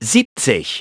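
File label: "seventy", English; iOS 4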